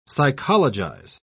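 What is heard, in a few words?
"psychologize", British English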